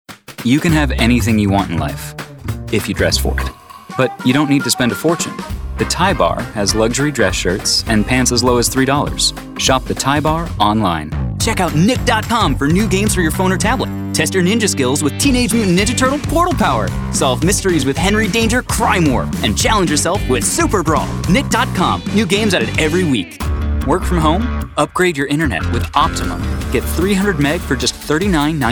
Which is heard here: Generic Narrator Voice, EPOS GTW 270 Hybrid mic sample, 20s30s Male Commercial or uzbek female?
20s30s Male Commercial